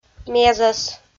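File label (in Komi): "мезӧс", Komi, female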